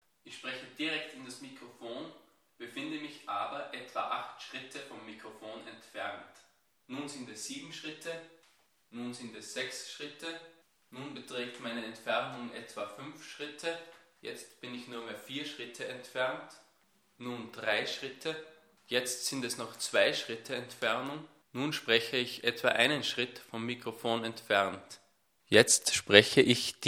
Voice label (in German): C1000s niere entfernung